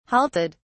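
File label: "halted", British English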